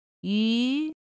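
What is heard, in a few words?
"ǘ", chino mandarin; pinyin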